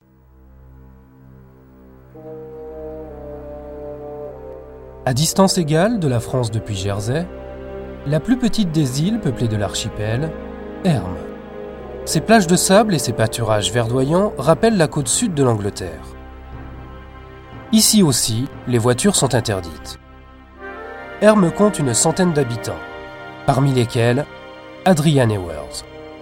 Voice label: Commentaire

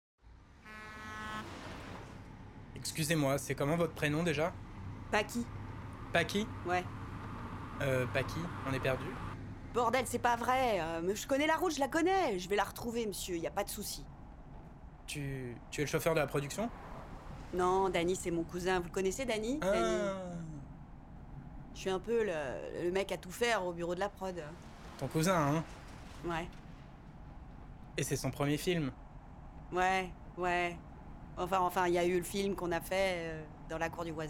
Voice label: Doublage

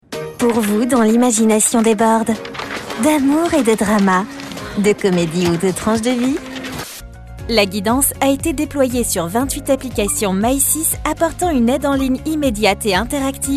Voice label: VoixOff

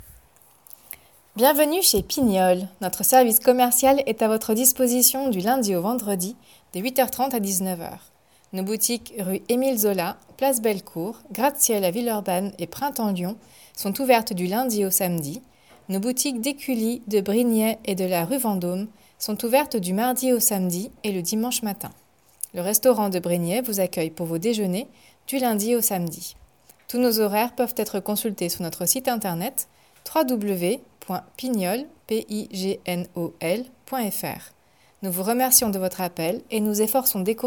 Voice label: Essai institutionnelle

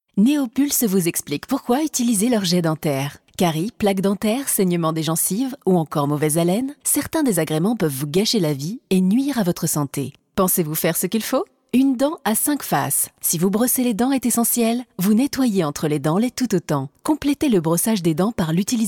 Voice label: voix off vidéo web

